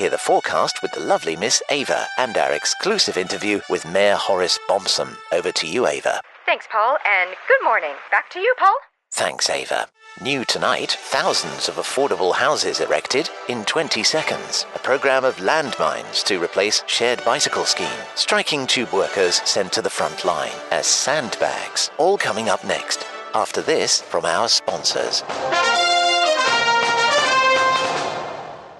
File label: news